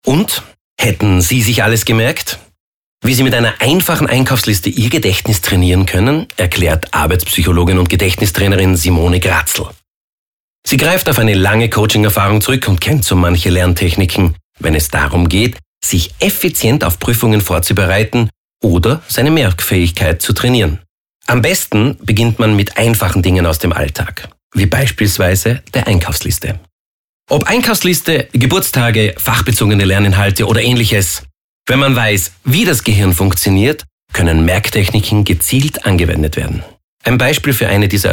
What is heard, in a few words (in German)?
Sprechercasting Voiceover